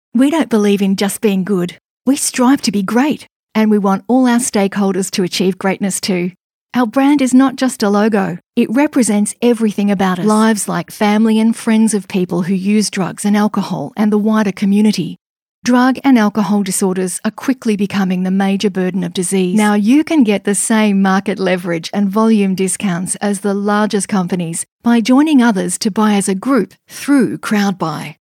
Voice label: Natural